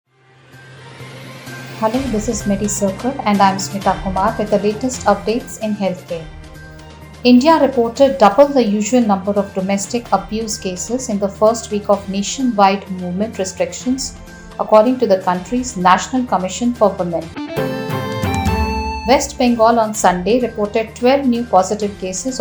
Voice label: english news